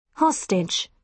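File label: "hostage", British English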